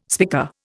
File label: "spica", Indian